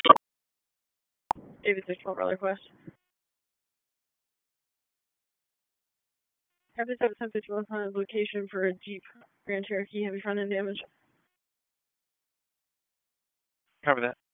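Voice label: Police Southwest District Dispatch, p25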